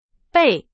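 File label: Chinese; hsk3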